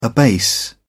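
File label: "abase", British English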